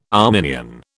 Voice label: "Arminian", British